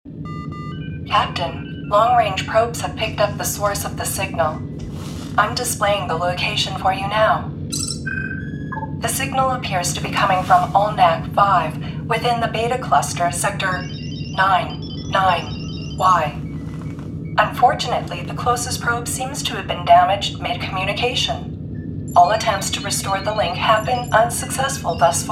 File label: AI Robotic Voice